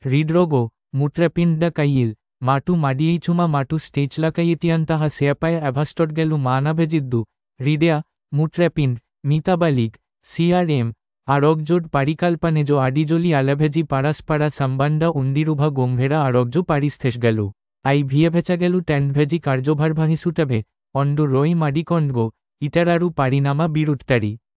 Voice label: Male Tamil